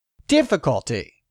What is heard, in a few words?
"DIFFICULTY", english; 3000 words